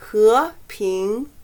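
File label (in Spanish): "和平", chino mandarin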